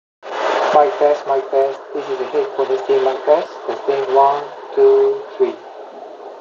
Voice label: Noisy; Melomania 1